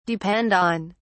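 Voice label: "depend on", British English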